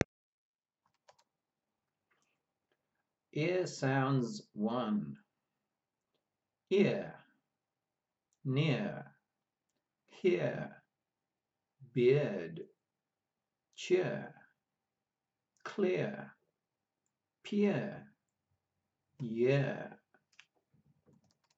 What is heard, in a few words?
Ear sounds, pronunciation of australian english